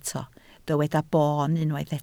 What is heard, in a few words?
"boon", Welsh